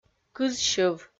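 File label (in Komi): "кызсьыв", Komi, female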